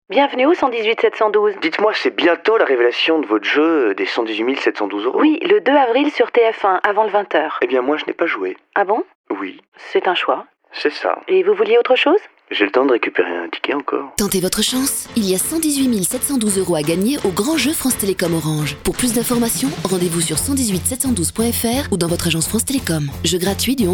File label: radio